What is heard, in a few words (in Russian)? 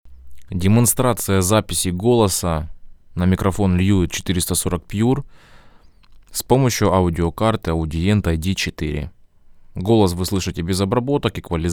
audient id4 mkii golos; Audient ID4 MKII - Голос; Audient ID4 MKII это новое поколение уже знакомой модели ID4